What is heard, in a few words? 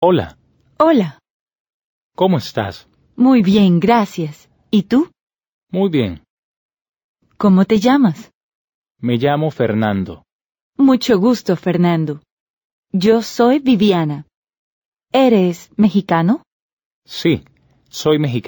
Dialogue, Spanish